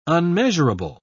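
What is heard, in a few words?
"unmeasurable", British English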